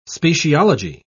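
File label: "speciology", English, British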